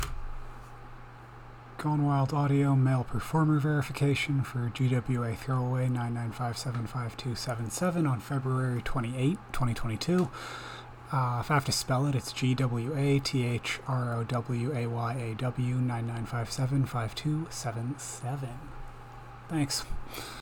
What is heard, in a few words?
Verification, male performer; rgonewildaudio